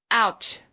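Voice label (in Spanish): Voice Acting, es, female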